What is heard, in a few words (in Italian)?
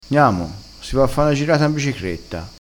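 "girata", vocabolario dialettale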